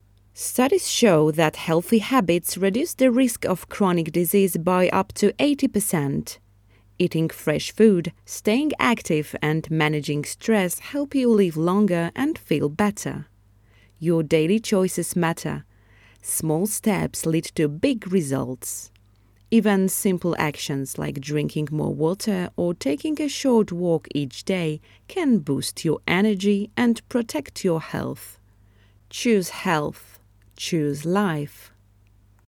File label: Educational video ENG